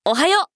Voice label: 「おはよう」, 男の子 王道系少年主人公

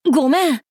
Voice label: 「ごめん」, 男の子 王道系少年主人公

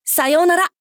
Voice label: 「さようなら」, 男の子 王道系少年主人公

